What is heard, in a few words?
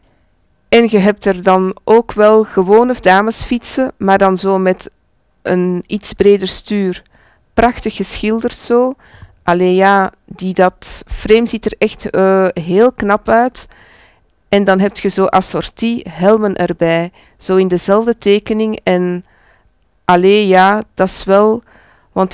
dub; ADR demo; EUSIPCO 2010